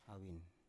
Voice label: "avin" Biak